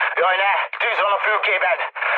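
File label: on fire, mood med, Hungarian; War Thunder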